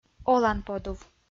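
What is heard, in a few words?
"оланподув", Komi, female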